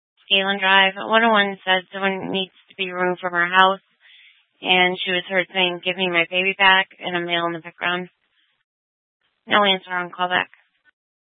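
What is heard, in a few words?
Tempe police department, scanner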